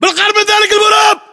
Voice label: landmark near garage, localized english battlechatter